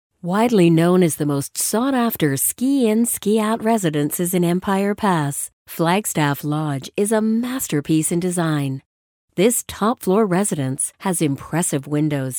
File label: english american real estate; Canada; warm and engaging voice with an amazing range